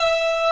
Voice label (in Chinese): "哇", E6; 创价学会